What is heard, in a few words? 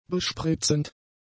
"bespritzend", german; adjectives